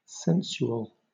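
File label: "sensual", British English; pronunciations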